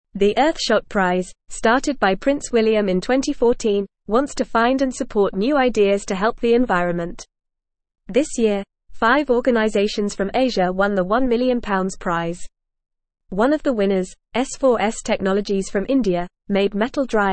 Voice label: English Newsroom Upper Intermediate FAST Reading Asias Innovators Leading the Way in; Asias Innovators Leading the Way in Climate Solutions